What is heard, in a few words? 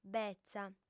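"betza", lingua sarda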